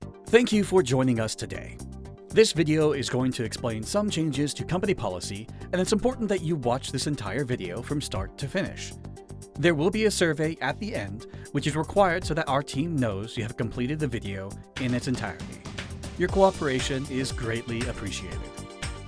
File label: Corporate Training Sample